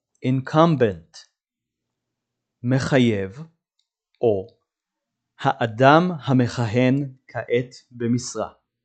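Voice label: "incumbent" English, "מחייב האדם המכהן כעת במשרה" Hebrew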